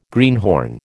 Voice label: "greenhorn", American